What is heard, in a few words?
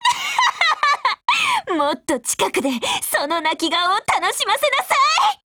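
Japanese; ATTACK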